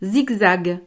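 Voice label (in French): "zigzag", French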